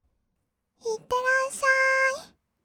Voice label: "いってらっしゃーい", kawaii voice; 挨拶

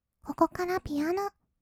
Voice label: ここからピアノ, kawaii voice; ASMR; 色々なワンショット

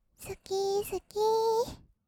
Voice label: "すきすきー", kawaii voice; ASMR; 色々なワンショット

